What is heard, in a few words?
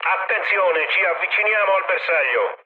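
Italian near waypoint mood med, speech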